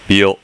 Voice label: "byo", hiragana